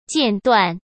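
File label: "间断", Mandarin Chinese; chinese-flashcards; hsk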